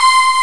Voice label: Vox & Voice